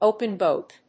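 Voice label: "Open Boat", English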